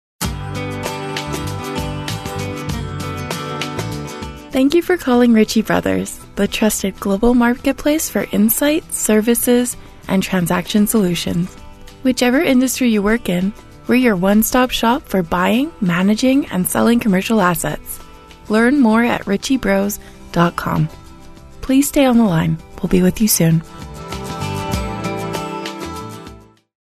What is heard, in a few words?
OnHold